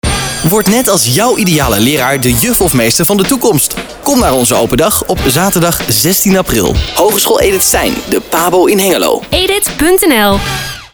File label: commercial